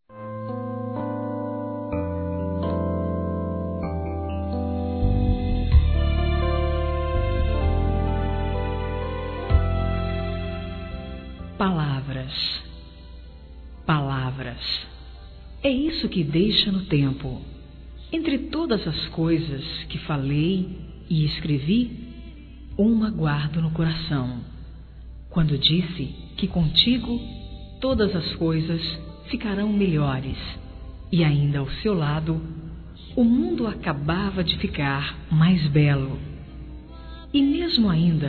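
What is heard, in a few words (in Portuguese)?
ANIVERSARIO ROMANTICO, feminino; Telemensagens; Telemensagens 2017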